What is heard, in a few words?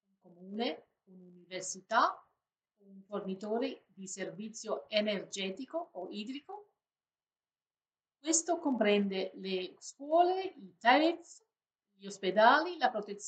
Italian